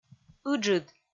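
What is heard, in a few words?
"ыджыд", Komi, female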